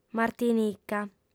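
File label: "martinica", female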